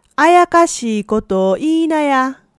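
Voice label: "ayakashii", Japanese dialect, woman; hogen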